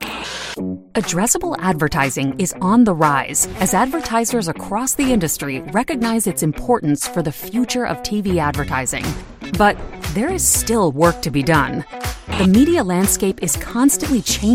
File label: Corporate Narration